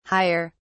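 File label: "hier", English, British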